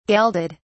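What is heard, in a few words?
"gelded", British English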